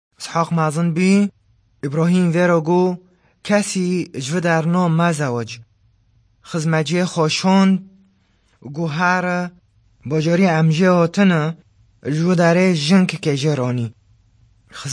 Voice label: kurdish northern afrin; new hope

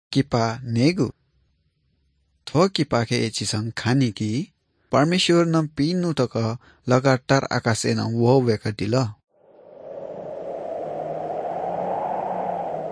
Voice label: good news newari bhaktapur

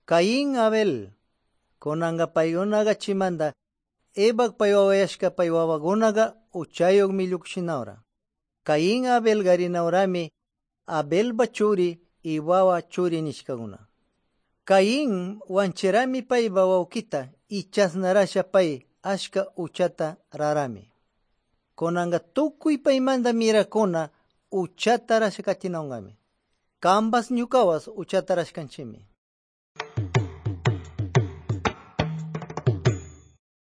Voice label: good news quichua de pastaza

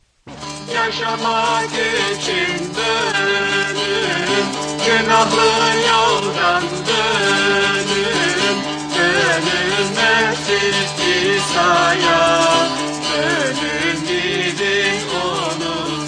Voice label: words of life, turkish

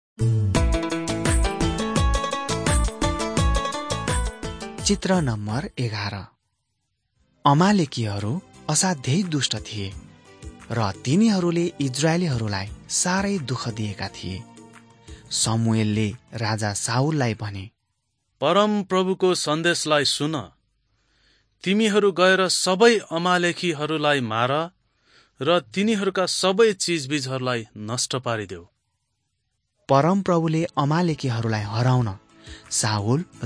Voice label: servants of god nepali

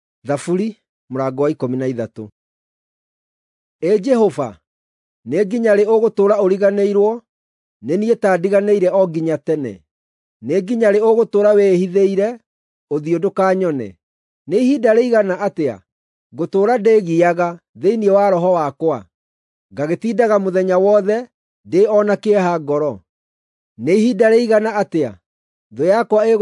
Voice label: psalms, gikuyu drama

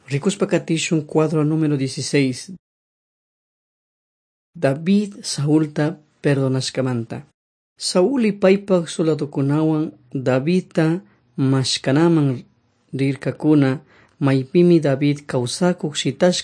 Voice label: servants of god quichua chimborazo

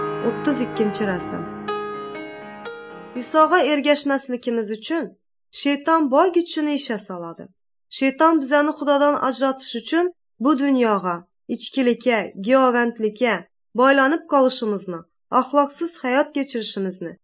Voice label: uzbek northern kipchak; good news

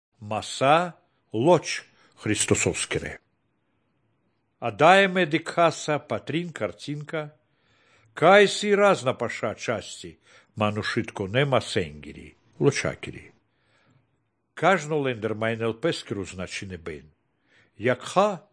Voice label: good news baltic romani

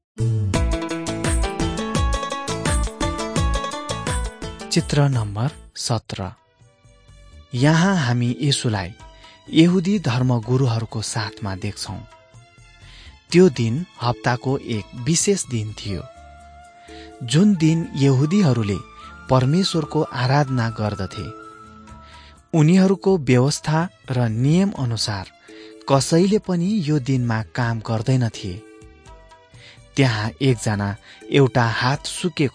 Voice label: jesus teacher and healer nepali

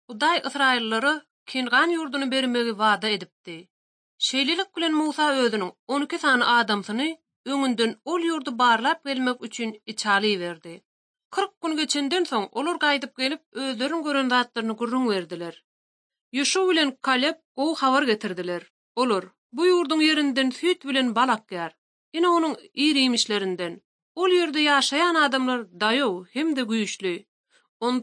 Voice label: turkmen, ot drama

